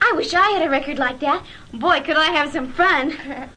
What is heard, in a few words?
i wish i had a fun record, Children